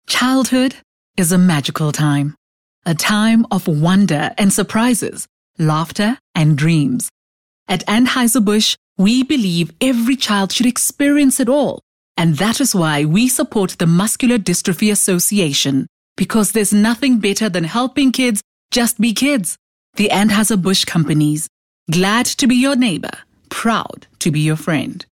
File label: English Corporate; OSM Talent